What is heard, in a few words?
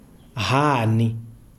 "leaf", Kalanga